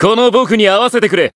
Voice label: limit break jp

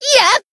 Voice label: attack, jp

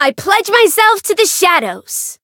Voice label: variable chant; suzette

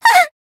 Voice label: damaged, jp; tsukiha

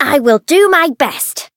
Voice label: Voice variable chant; yuna

